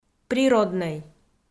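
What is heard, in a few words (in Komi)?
"природнӧй" Komi, female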